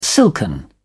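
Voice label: "silken", British English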